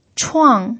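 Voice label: "chuang" fourth tone, Mandarin Chinese pinyin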